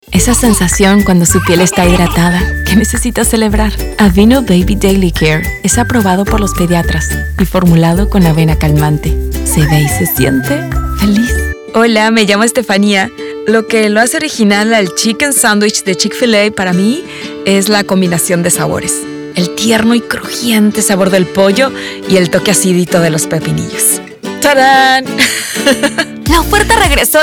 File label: Commercial